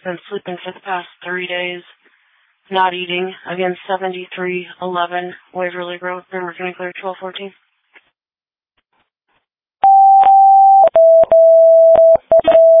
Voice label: Janesville First Responders, dispatch

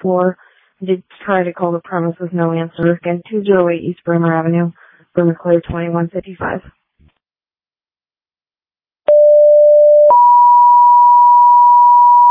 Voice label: Waverly Fire, dispatch

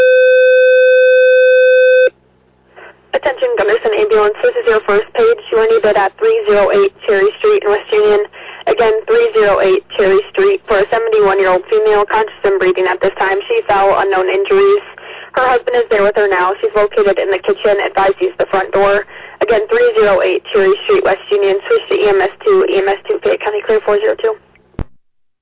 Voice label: Ambulance, dispatch

